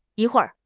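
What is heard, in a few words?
"yi1 hui4 r5", Chinese; HSK; HSK3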